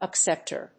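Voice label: "acceptor", English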